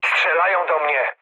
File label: aircraft damaged mood med, speech pol; War Thunder